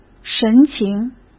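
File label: "神情", Mandarin Chinese; chinese flashcards; hsk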